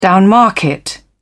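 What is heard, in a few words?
"downmarket", British English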